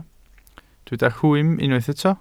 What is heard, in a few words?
"rhwym", Welsh